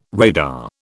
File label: "radar", British